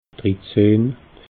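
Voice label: "drittzehn", Alsatian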